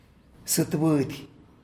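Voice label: "chicken egg", Tlacolula Zapotec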